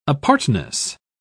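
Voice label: "apartness", British English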